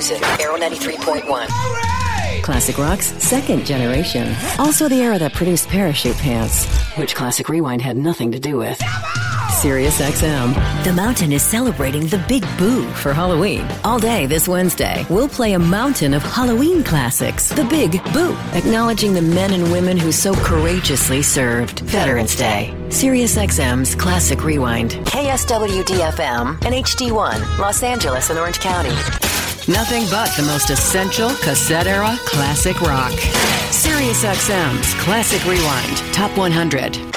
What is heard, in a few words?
Radio Imaging